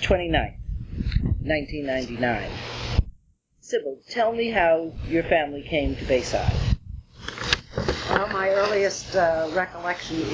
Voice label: Noise Removed, Oral History